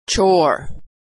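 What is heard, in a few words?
"chore", American English; vowel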